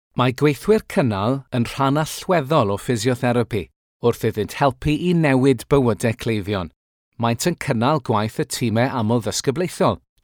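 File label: Welsh Male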